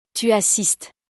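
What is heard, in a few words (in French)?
"tu assistes", French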